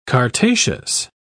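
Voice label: "chartaceous", British English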